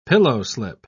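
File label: "pillowslip", British English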